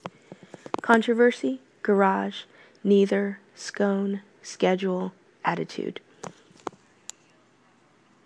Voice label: san antonio texas us female

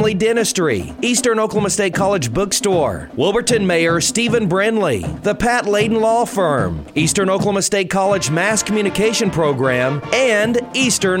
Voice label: basketball on mountaineer radio; hartshorne at wilburton

